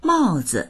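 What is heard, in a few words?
"mao4zi", Mandarin Chinese; 帽子; màozi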